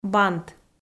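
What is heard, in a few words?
"бант", Komi-Zyrian, female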